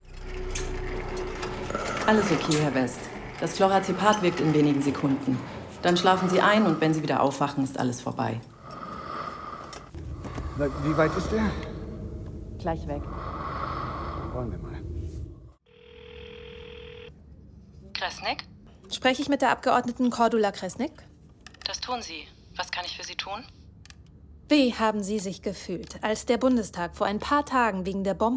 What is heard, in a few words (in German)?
Hoerspiel Erwachsene